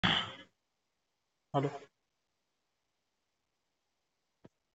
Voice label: Call recording